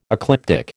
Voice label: "ecliptic", American